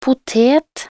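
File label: "potet", Norwegian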